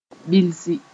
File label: "bilsi", Mooré